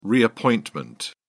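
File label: "reappointment", British English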